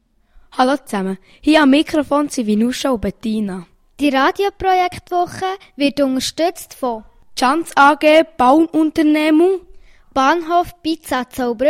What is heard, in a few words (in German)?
Begrüssung anmodi